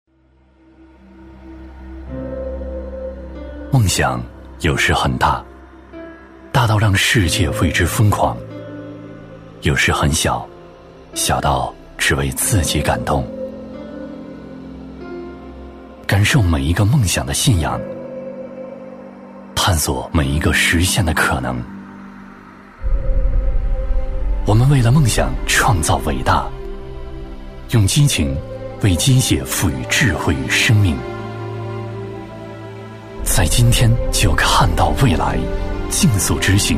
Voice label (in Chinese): 男 走心广告 励志向上; 刚柔并济 质感磁性